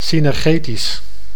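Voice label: "synergetisch", Dutch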